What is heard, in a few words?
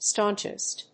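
"staunchest", English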